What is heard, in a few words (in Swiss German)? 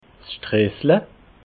"stressla", alsace